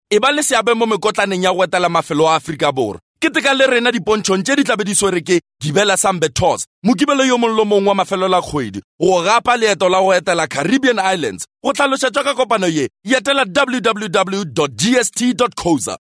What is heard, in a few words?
Sotho Hardsell